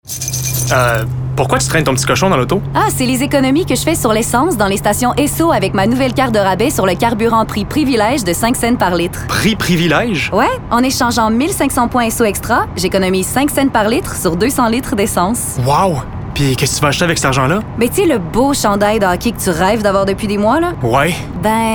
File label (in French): Pub radio